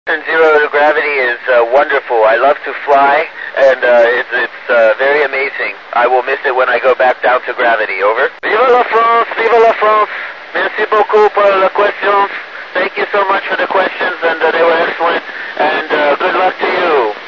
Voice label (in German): iss phonie fm